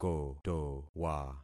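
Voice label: "kotowa", concatenated syllables; Affixing experiment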